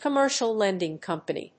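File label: "commercial lending company", English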